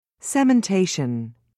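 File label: "cementation", British English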